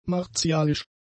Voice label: "martialisch", German; adjectives